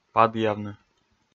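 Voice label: "падъявны", Komi, male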